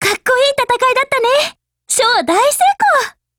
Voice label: WIN JP; SPAS12